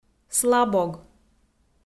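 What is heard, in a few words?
"слабог", Komi-Zyrian, female; kpv audio dict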